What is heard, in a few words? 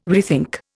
"rethink", Indian